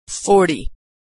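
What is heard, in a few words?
"number 40", American English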